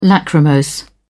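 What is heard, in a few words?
"lachrymose", British English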